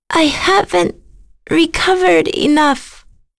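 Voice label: Vox Dead, English; Lewsia; kings raid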